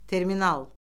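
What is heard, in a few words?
"terminal", Azerbaijani; Orfoqrafiya Lüğəti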